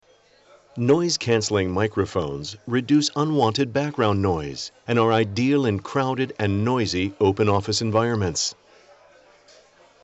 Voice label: Noise Canceling Microphone